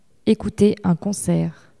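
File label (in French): "ecouter un concert", French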